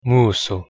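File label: "muso", Proto-Indo-European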